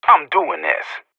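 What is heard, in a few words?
radio bot response attacking; player vo; Counter Strike Model Pack; CS:GO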